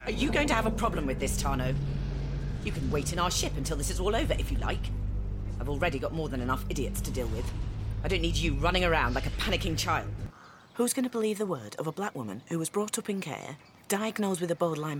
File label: Character